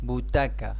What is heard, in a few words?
Seat spanish